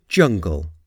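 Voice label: "jungle", English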